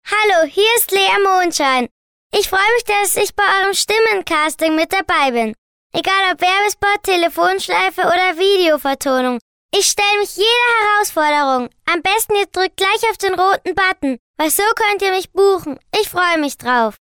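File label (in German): Kinder Neutral, Kinderstimmen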